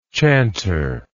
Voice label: "chantership", English

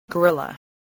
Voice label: "guerrilla", spelling

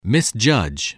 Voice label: "misjudge", voice, spelling

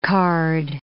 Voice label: "card", English

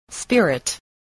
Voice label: "spirit", English voice

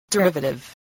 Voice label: "derivative", English

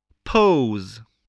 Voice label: "pose", English

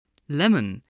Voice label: "lemon", spelling

